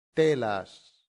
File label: "telas", latin; santillana